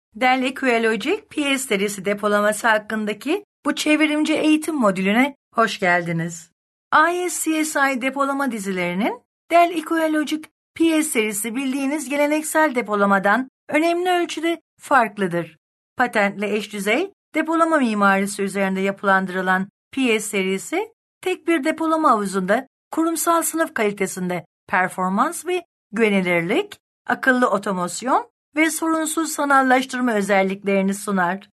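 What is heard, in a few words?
Turkish, female; Dell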